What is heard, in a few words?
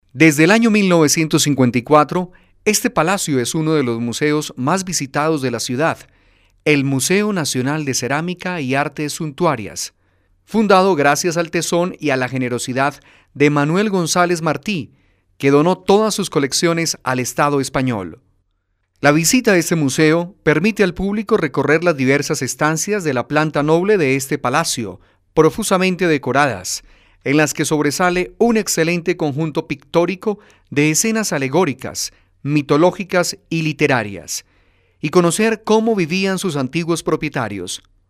locutor colombiano colombian voiceover